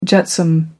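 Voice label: "jetsam", British English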